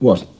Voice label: what, british bloke; questions

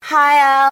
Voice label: "hiya", voice; ooh ahh

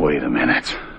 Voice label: "wait a minute", male; Voice

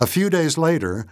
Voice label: few days later announcer

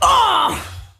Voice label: "uh" rage; ooh ahh; uh um

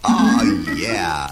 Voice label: "ah yeah", voice; organ

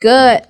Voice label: "good" drag, Voice; expletives

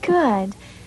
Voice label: "good" girl, expletives

